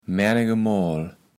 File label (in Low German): "maennegemoal", kaevels platt